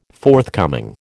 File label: "forthcoming", American